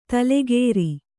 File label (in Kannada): "ತೈಲಕಾರ", kannada